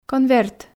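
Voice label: "конверт", Komi, female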